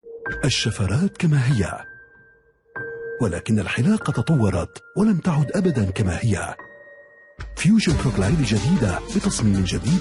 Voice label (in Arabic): تعليق صوتي